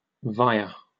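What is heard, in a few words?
"via", British English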